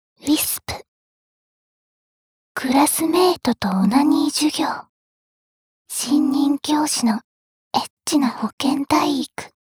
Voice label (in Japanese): タイトルコール, ASMR